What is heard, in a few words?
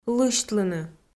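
"лысьтлыны" Komi, female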